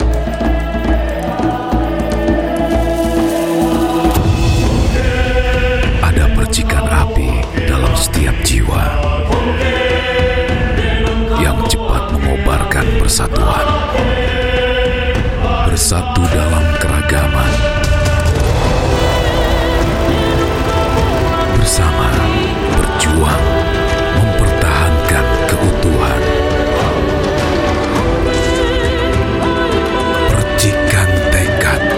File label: Bahasa Indonesia Commercial